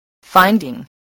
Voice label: "finding", spelling